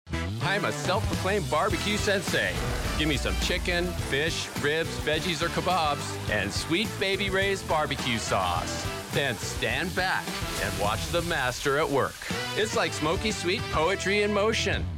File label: foreigner